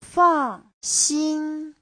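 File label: "fàngxīn", Mandarin Chinese; 放心; (động từ) yên tâm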